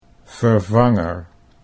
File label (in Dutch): "vervanger" Dutch